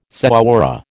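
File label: "señora", American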